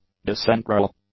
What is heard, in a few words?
"decentral", english; adjectives